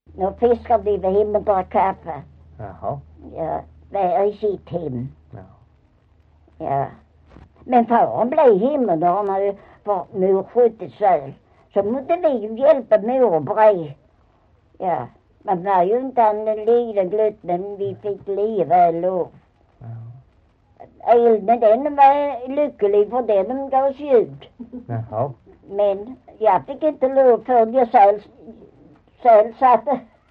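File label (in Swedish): Maglarp Skåne, dialekter; Folkminnen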